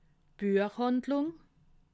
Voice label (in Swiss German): "buechhandlung", alsace